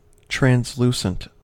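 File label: "translucent", US English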